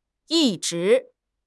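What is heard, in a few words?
"yi1 zhi2", Mandarin Chinese; HSK3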